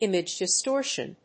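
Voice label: "image-distortion", English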